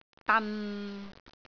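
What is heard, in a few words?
"dan6", Cantonese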